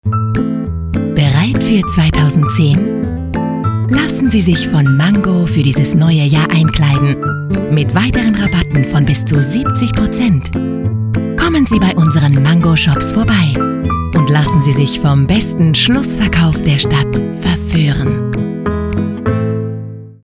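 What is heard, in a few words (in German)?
funkspot warm; mango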